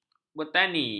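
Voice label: "bertani", Malay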